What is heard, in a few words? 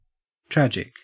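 "tragic", Australian English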